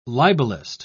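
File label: "libelist", British English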